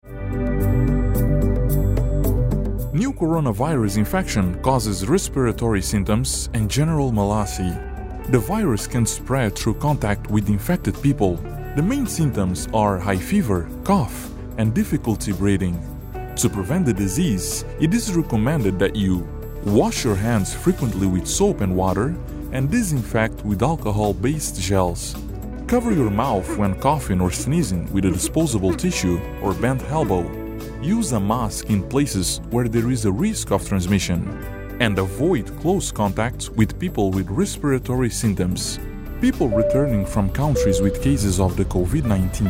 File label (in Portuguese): Spot Corronavirus versão radio Inglês